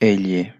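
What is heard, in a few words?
"ll", Spanish pronunciation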